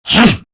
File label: Threatened, Male; Warframe; Grineer Male; Battle Barks